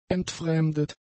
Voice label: "entfremdet", German; adjectives